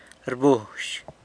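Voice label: "rbuzh", San Lucas Quiaviní Zapotec; calls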